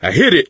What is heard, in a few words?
misc directional, coach, survivor voice